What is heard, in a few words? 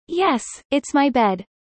fast; studying abroad